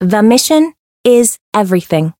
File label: Voice chant